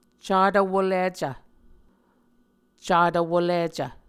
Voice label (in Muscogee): "catvwolicv", Muscogee; Mvskoke Words with Voicing Consonants; A Dictionary of Creek/Muskogee; SIL International; Onvkv Ohhvyayices